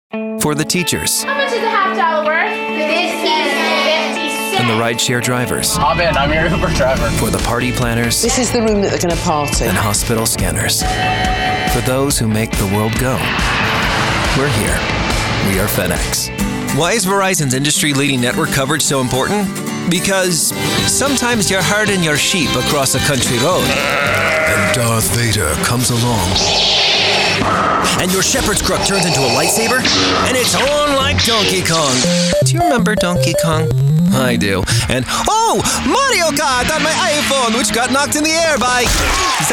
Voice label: english american commercial